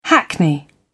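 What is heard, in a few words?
"hackney carriage", British English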